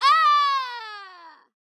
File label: Pain Death; Arya